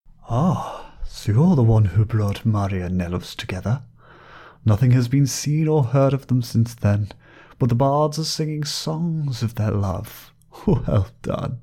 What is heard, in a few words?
breton voice